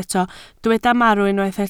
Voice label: "marw", Welsh

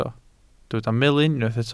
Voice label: "melyn", Welsh

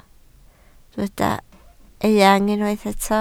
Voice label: "eang", Welsh

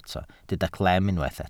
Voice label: "clem", Welsh

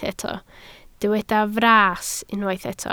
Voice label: "fras", Welsh

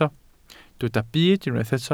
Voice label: "byd", Welsh

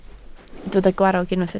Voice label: "gwarrog", Welsh

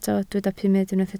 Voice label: "pumed", Welsh

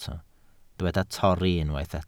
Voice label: "torri", Welsh

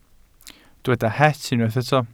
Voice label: "het", Welsh

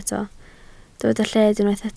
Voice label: "lled", Welsh

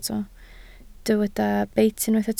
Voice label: "beit", Welsh

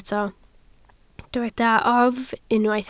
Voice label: "oddf", Welsh

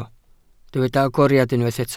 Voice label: "agoriad", Welsh

